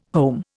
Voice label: "home", Indian

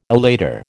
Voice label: "elater", American

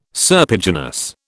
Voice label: "serpiginous", British

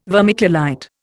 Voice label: "vermiculite", Indian

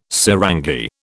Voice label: "sarangi", British

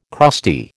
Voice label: "crusty", American

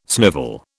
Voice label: "snivel", British

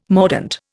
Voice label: "mordent", Indian

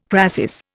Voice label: "crasis", Indian

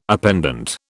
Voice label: "appendant", British

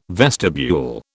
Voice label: "vestibule", British

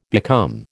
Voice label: "become", American

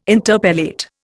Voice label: "interpellate", Indian

